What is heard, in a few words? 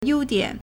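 "优点 yōudiǎn", Chinese; 优点 (優點); merit; strong point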